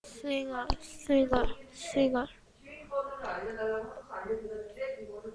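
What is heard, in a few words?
"singer", spoken word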